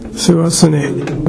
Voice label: "sewasune", minamiyamagata